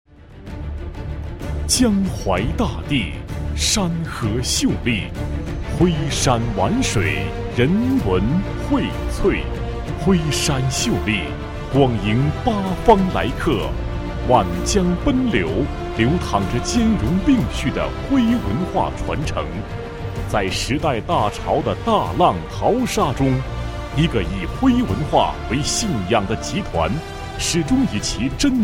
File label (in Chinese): 男 专题 大气昂扬, 国语男声配音; 安徽晶宫集团